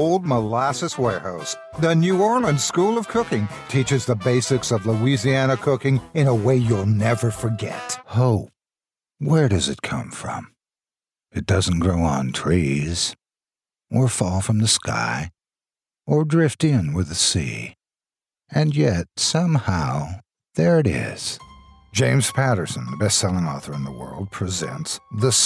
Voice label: commercial